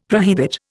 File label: "prohibit", Indian; Dictionary